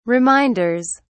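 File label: "reminders", British English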